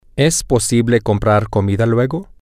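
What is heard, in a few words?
Spanish, male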